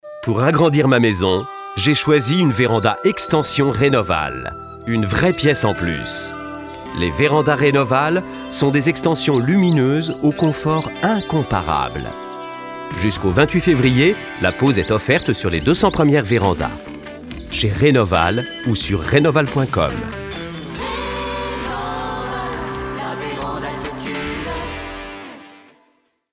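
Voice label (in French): Pub, voix off; Publicité; Enregistrements dans la cabine speak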